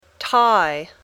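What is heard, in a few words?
"tie", English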